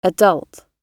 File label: "adult", gb